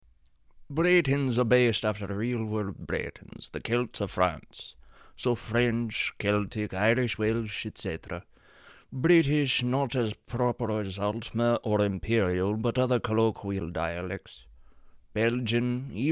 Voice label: audition breton, voice auditions